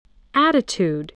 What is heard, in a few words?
"attitude", english